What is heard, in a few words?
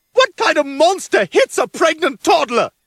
toddler; Fam Guy; Stiwie